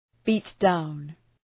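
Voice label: "beat down", English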